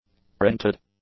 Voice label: "printed", english; adjectives